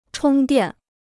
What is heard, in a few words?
"充电", Chinese